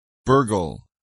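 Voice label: "burgle", English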